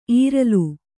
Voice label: "ಈರಿಲು", kannada

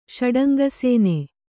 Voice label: "ಷಡಂಗಸೇನೆ", Kannada

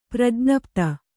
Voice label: "ಪ್ರಜ್ಞಪ್ತ", Kannada

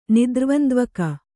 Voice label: "ನಿದ್ರ್ವಂದ್ವಕ", Kannada

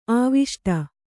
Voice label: "ಆವಿಷ್ಟ", Kannada

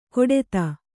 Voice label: "ಕೊಡೆತ", Kannada

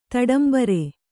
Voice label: "ತಡಂಬರ್", kannada

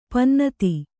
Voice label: "ಪನ್ನತಿ", Kannada

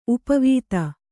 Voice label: "ಉಪವಿಧಿ", Kannada

